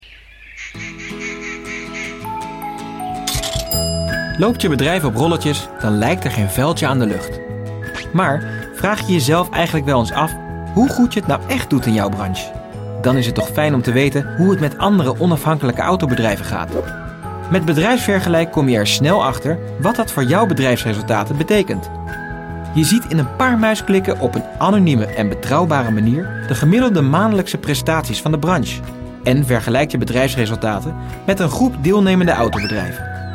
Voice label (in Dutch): Promotiefilm; Online Tool